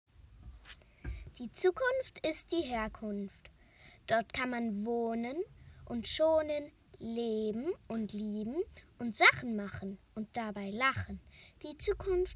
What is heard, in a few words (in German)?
ZukunftsGedicht Schülerin Kl4